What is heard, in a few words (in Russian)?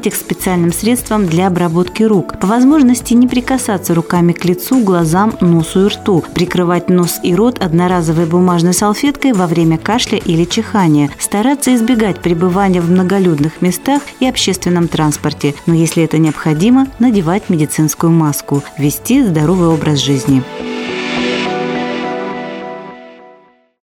Аудиоролик Грипп Профилактика; РЦМП